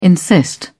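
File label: "insist", British English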